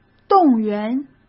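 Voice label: "动员" Mandarin Chinese; hsk; chinese-flashcards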